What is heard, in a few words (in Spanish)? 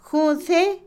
bebida, Otomí, mujer; Comida; INALI